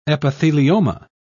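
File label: "epithelioma", British English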